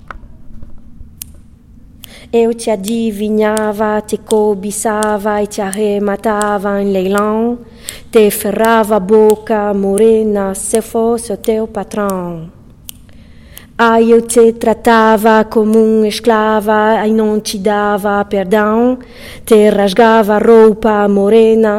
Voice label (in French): prononciation hommes; se eu fosse o teu patrao